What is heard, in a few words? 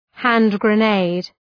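"hand grenade", English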